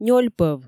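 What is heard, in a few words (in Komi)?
нёль пӧв, Komi, female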